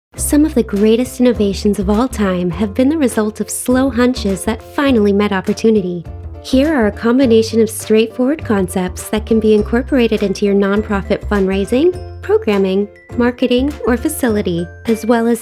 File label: Narration